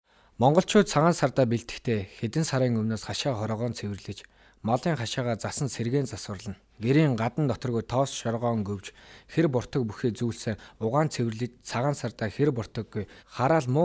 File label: Mongolian Male